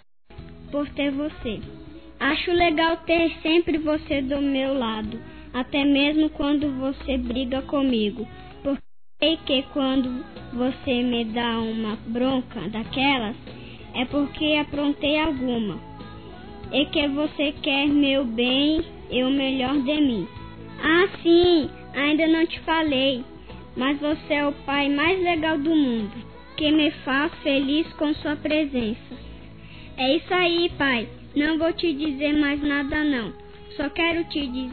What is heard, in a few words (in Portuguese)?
CRIANÇA, Telemensagens, Dia dos Pais; Telemensagens 2017